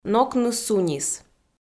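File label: "нокны сунис", Komi-Zyrian, female; audio dict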